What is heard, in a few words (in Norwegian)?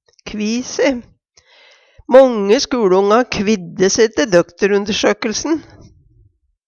kvi se, Numedalsmål; Mange skuLeonga kvidde se te døkterundersøkelsen.